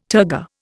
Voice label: "turgor", Indian